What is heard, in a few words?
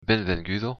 welcome, occitan